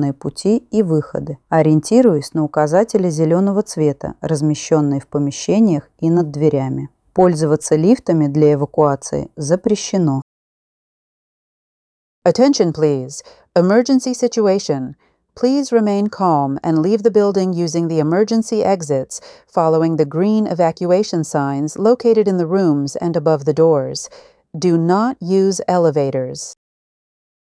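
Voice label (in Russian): оповещение чрезвычайная ситуация ru en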